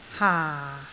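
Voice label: "haa4", Cantonese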